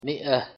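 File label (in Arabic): "مئة", Arabic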